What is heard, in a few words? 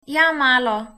yes a little, slovenian; Ja, malo